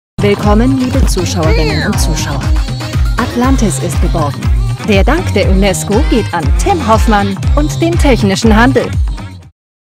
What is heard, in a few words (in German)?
ernst kuhrend nachrichtensprecherin; Sprecher in Berlin; Muttersprache Deutsch